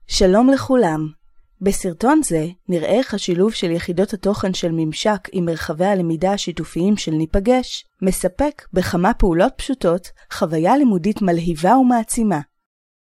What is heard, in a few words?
Hebrew